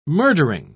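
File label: "murdering", British English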